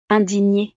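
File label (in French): "indignee", French; estados animo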